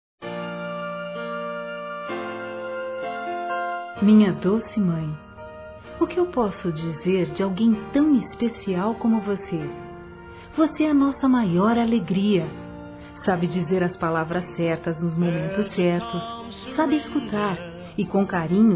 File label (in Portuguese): MÃE F, Telemensagens, Dia das Mães; Telemensagens 2017